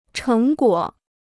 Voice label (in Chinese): "成果", Chinese